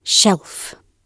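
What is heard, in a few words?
"shelf", British English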